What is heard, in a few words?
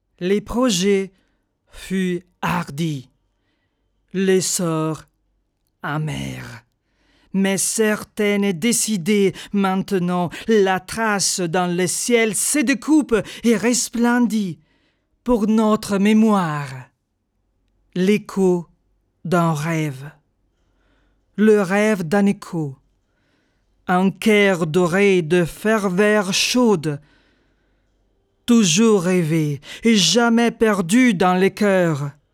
voice over FR